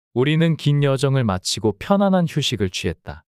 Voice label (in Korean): 문장 남자; 우리는 긴 여정을 마치고 편안한 휴식을 취했다